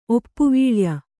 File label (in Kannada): "ಒಪ್ಪುವೀಳ್ಯ", Kannada